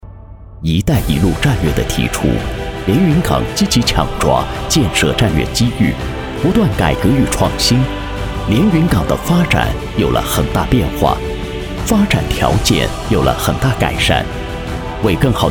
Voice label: 男 专题 解说; 一带一路下的连云港; 六榷务解说

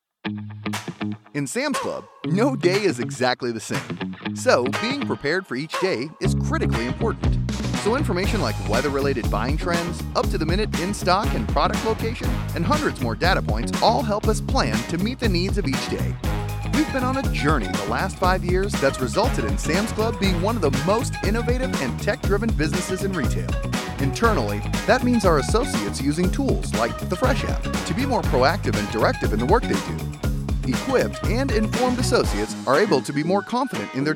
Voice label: 外籍英男 年轻活力 产品介绍

